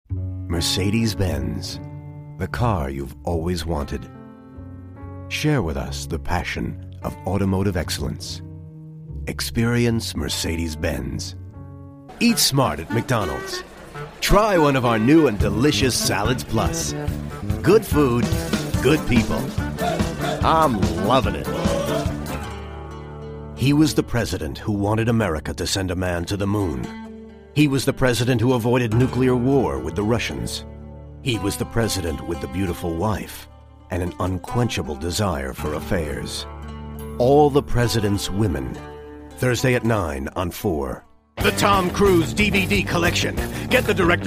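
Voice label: American English, Commercial; GoLocalise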